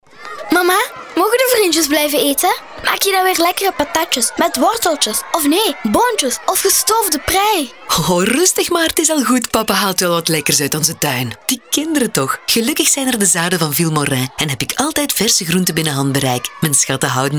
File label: teenage voice, Female Flemish voice over